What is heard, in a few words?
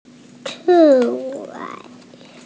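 "ci4wei5", Mandarin Chinese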